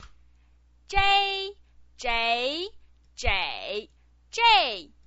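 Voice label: "zhei", Mandarin Chinese pinyin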